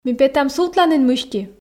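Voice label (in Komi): ми петам сувтланін мысти, Komi, female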